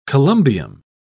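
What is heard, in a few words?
"columbium", British English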